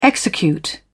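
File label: "execute", British English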